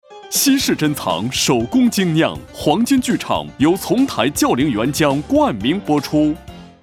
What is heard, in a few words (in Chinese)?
男 冠名包装配音; 河北台冠名包装配音; 丛台